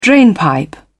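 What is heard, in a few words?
"drainpipe", British English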